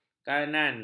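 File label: "kanan", Malay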